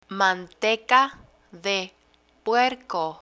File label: "manteca de puerco", Spanish; learn spanish